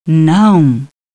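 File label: "no", female; TALKNOW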